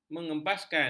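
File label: "mengebaskan", Malay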